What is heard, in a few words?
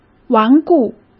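"顽固", Mandarin Chinese; chinese-flashcards; hsk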